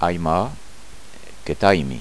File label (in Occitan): "aimar", gasconha